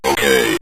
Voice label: "okay", synth voice; Kenney's Sound Pack